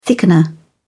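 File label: "thickener", British English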